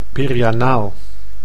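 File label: "perianaal", Dutch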